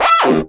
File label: OUCH, Anime Sound FX; COMIC